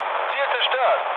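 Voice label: German bomb success mood low, speech; War Thunder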